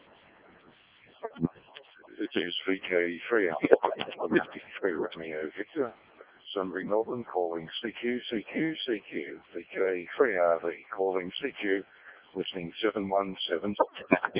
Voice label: decoded speech, 7177KHz